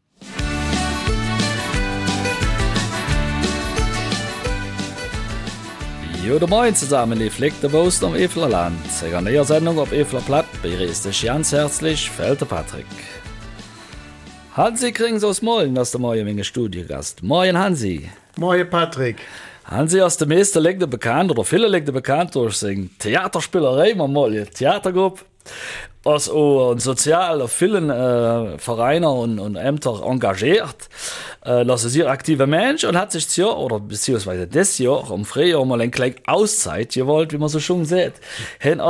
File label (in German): Mundartsendung